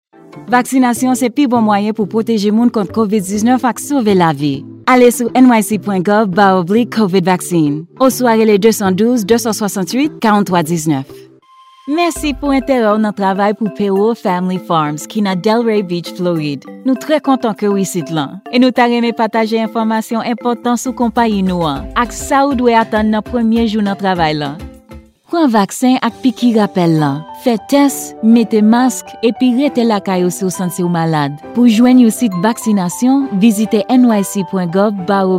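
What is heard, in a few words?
Haitian Creole, General; GoLocalise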